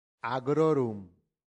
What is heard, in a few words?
"agrorum", latin; santillana